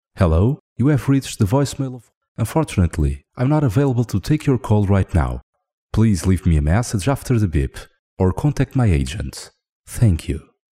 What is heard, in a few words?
male portuguese voice over talent english; portugal